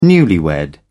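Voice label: "newlywed", British English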